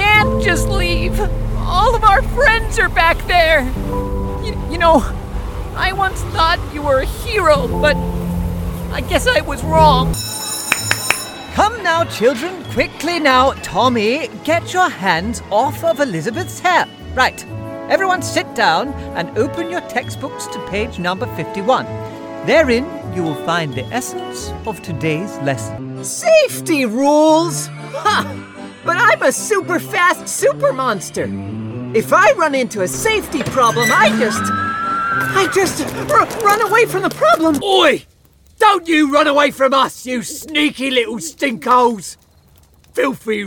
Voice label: Animation, scottish voice over actor